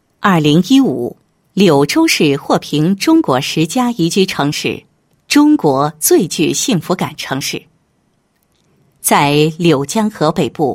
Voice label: 女 法院 司法 人民法院, 配音案例

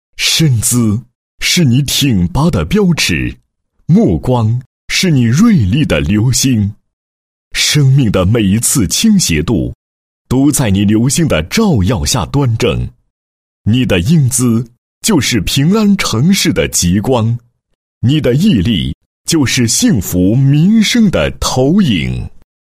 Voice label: 男 颁奖 十佳交警 辅警颁奖词

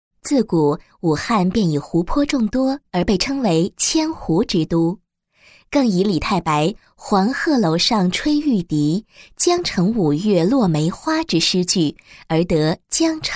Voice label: 女19 专题 解说 城市 企业, 国语女声配音; 武汉及企业视频介绍

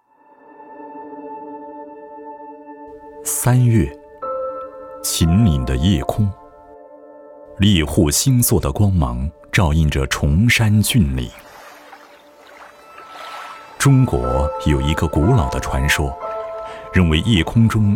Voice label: 男, 纪录片; 轮回

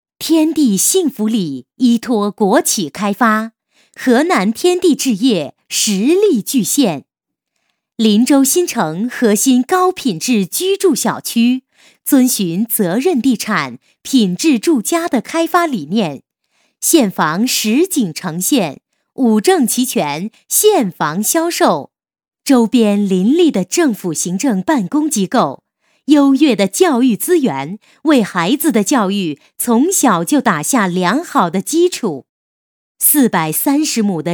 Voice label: 女 促销, 国语女声配音; 天地幸福里

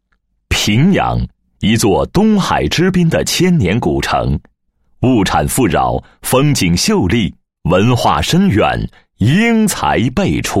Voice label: 男 地区宣传; 平阳招商局

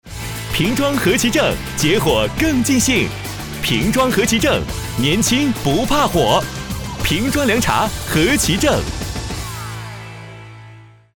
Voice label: 男,青春; 和其正

